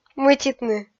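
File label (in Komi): "мытитны", Komi, female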